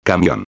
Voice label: "camion", espanhol